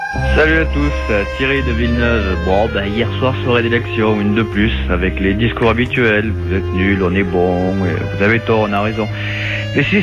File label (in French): France d en bas repondeur